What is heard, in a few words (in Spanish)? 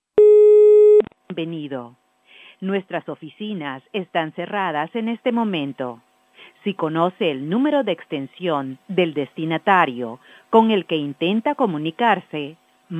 mensajes telefonicos